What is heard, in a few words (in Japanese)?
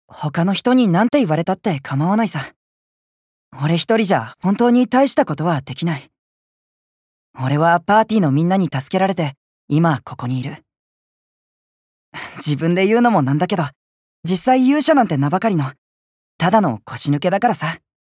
アニメ